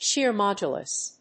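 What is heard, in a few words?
"Shear modulus", English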